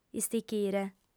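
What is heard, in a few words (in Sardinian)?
"istichire", Sardinian, female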